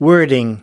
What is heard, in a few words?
"wording", us